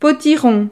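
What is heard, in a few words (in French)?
"potiron", French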